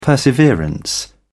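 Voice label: "perseverance", British English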